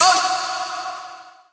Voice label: "uh" ECHOEY; ooh ahh

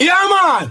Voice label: "yeah man", voice; ooh ahh

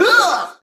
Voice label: "huh" boy; ooh ahh; heh huh

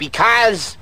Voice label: "because", cartoon

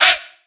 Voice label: "hey", male chant

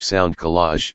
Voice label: robot guy; Voice; sound collage

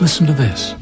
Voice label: listen to this male; Voice; introductions

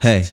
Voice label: "hey", voice; ooh ahh

